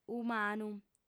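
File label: "umanu", Sardinian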